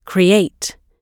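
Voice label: "create", British English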